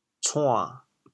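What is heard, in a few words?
"cuan3", Teochew, chaoyang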